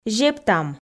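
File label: "зептам", Komi, female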